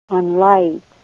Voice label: he is barking, Ninilchik Russian; láyat'; v ipfv. bark; On láyit. He's barking.